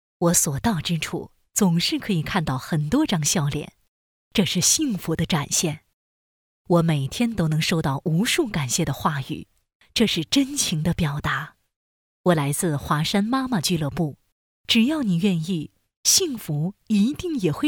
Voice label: 角色扮演 40-50岁 主任独白; 喻主任

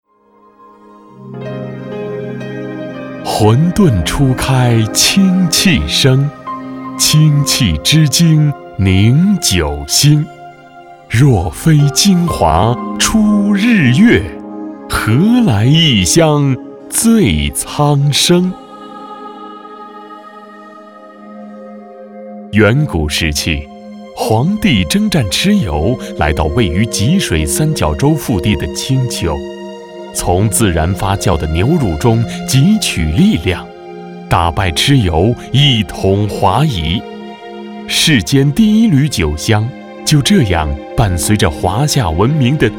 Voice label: 男, 纪录片, 浑厚类型; 扳倒井

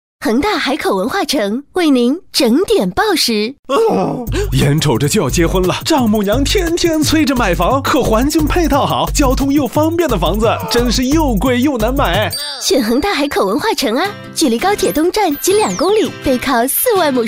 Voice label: 女 电台地产广告

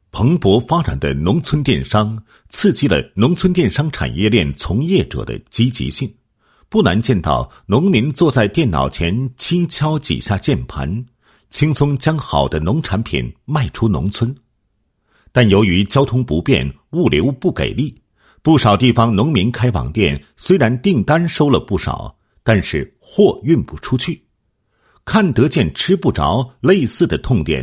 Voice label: 男, 教育培训; 电子商务进农村综合示范县建设

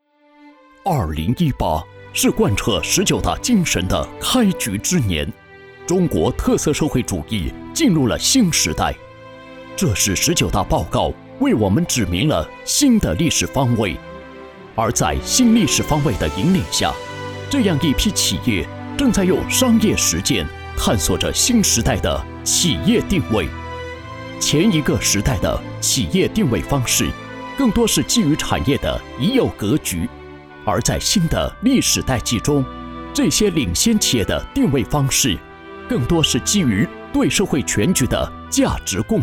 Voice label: 男 年会 大气昂扬

